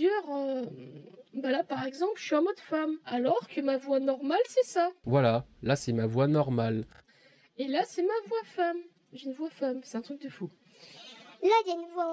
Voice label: creative sound blaster ae 9 voice morph